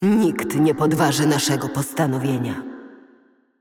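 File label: voice over, Polish; Karma assist; bloom minigame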